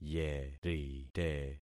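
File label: "yerite", concatenated syllables; Affixing experiment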